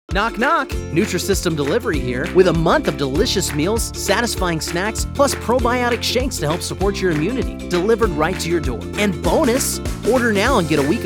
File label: commercial